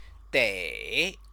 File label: "dei", Chinese; Chinese lessons